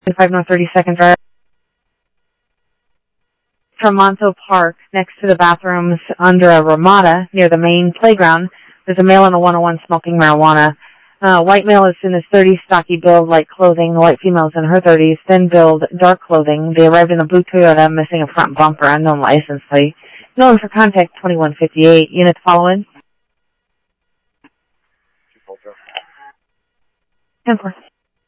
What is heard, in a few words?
Phoenix PD, scanner audio; ThompsonPeakHigh; PHOENIX PD-200 A